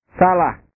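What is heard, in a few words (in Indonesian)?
"salah", Indonesian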